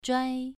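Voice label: "zhuai", Mandarin Chinese, second tone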